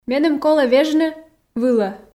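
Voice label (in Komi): меным колӧ вежны вылӧ, Komi, female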